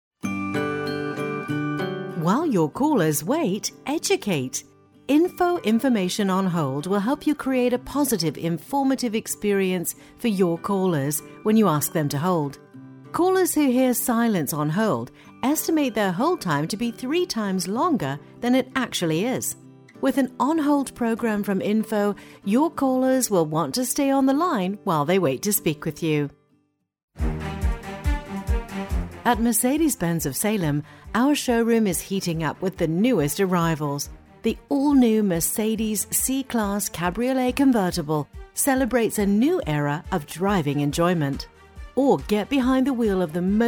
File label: UK Voice